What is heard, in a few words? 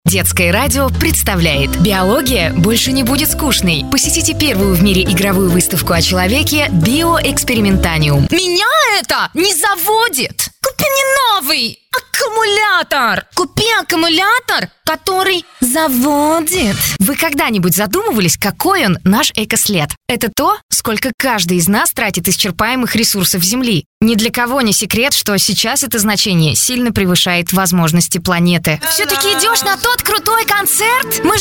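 Russian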